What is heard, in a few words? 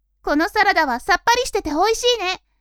woman